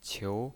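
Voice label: "qiu" second tone, pinyin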